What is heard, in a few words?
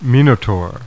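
"minotaur", English; Heroic Tales: Theseus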